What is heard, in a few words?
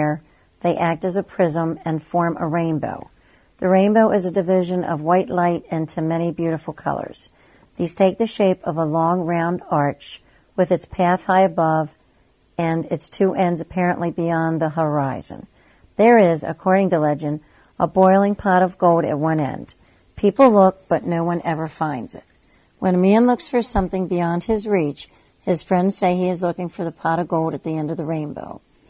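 maryland, International Dialects of English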